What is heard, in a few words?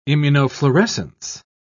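"immunofluorescence", British English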